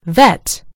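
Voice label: "vet", British English; gcompris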